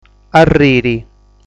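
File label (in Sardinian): "Arriri", Sardinian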